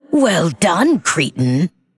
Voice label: Female Lich Well Played